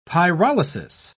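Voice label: "pyrolysis", British English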